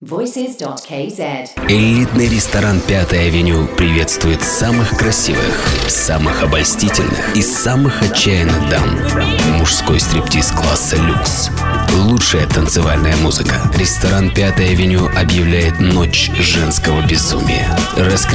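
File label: Russian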